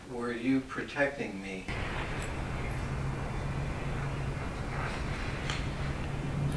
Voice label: room Male, evp